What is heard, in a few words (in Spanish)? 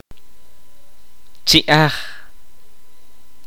"boca", Kaqchikel